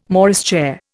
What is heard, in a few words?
"Morris chair", Indian